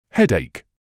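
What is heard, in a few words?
"headache", British English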